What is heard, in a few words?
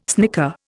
"snicker", Indian